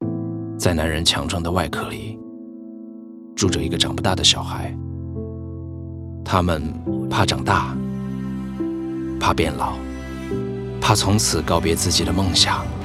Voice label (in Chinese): 广告 中文; TVC P&G